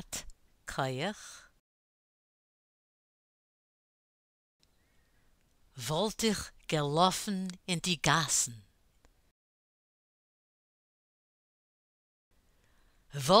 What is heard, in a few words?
pronunciation drill; Volt Ikh Gehat